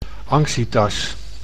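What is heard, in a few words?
"anxietas", Dutch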